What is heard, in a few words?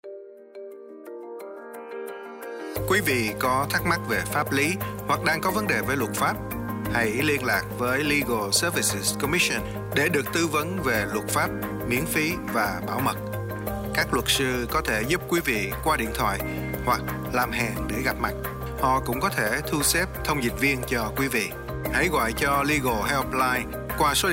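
VIETNAMESE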